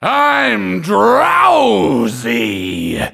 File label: I'm Drowsy Voiceover; Horse Pill